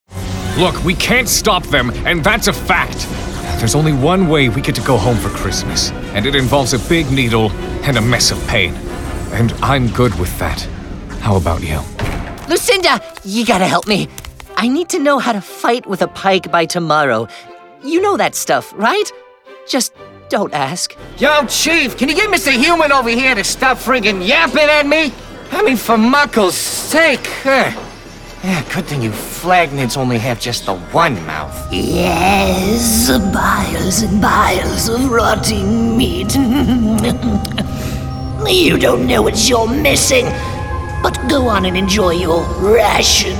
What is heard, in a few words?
vo character